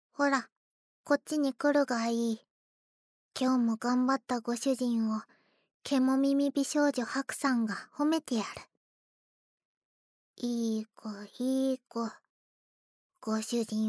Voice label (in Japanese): シチュエーションボイス